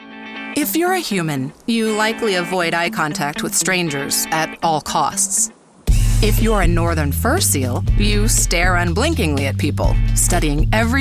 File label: Snarky and Hip, Commercial